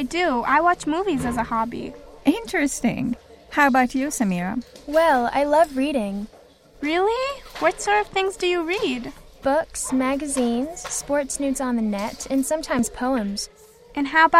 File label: english conversation